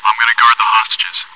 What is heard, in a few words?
chitchat, bot, voice commands; svencoop